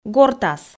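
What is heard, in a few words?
"гортас", Komi, female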